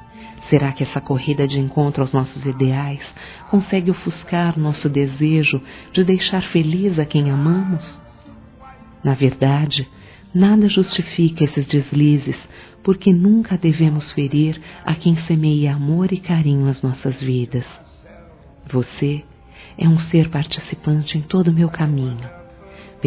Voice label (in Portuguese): Desculpas Aniversário de Casamento Femin; Telemensagens; Telemensagens 2017